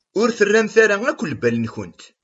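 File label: "Ur terram ara akk lbal-nwen.", Kabyle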